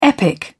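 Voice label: "epic", English, British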